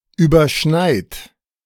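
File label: "überschneid", German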